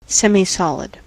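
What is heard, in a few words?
"semisolid", US English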